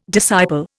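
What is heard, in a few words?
"disciple", Indian English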